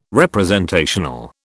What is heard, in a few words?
"representational", British